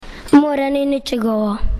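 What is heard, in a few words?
"nicigovo", Croatian dialect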